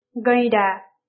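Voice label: "rhino", English; grade4; vertebrates invertebrates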